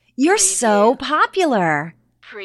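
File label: Woman Says You're So Popular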